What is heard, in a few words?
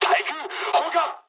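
damaged aa mood med, speech japanese; War Thunder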